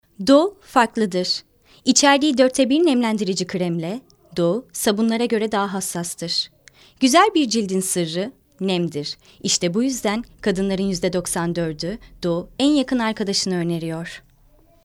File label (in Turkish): reklam